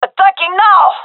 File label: attacking, gendermerie fem; vo